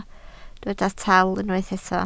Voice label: "taal", Welsh